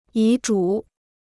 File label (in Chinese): "遗嘱", Chinese